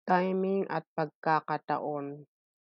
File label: Tagalog